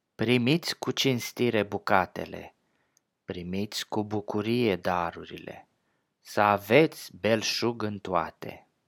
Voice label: romanian